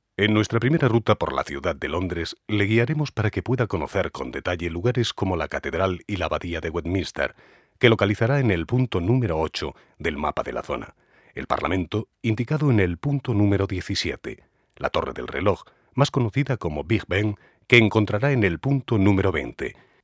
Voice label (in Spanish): audioguia